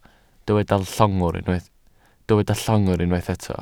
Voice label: "llongwr", Welsh

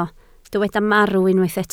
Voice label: "marw", Welsh

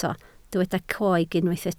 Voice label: "coeg", Welsh

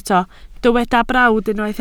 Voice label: "brawd", Welsh

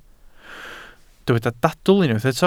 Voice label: "dadl" Welsh

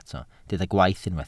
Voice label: "gwaith" Welsh

